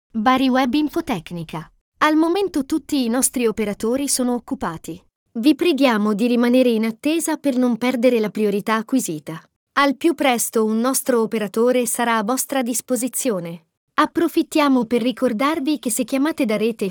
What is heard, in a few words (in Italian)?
messaggio attesa telefonica